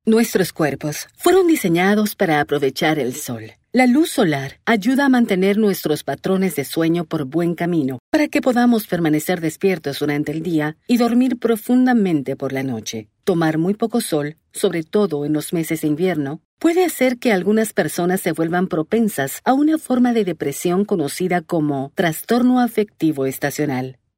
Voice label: Medical Narrations

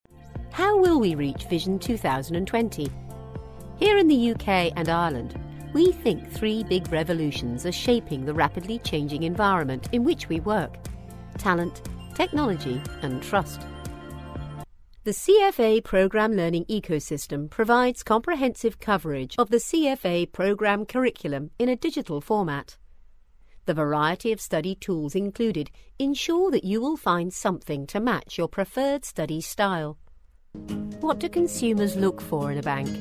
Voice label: Corporate Read

